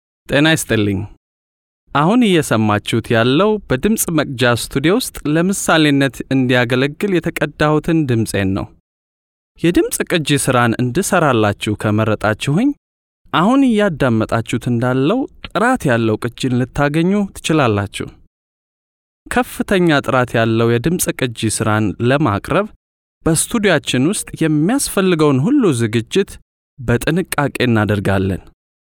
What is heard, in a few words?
Amharic, male